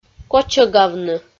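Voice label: "кочӧгавны", Komi, female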